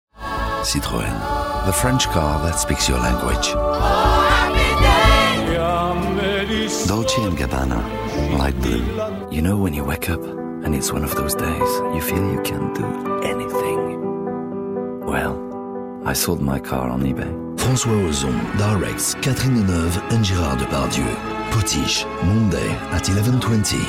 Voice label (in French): voix off en anglais